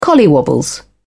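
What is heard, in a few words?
"collywobbles", British English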